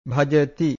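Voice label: "bhajati", Pali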